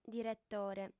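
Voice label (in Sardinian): "diretore", female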